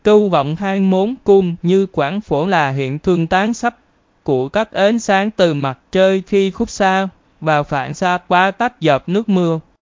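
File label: speaker, American English; piper-voices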